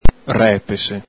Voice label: "repese", Venetian